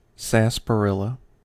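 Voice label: "sarsaparilla", American English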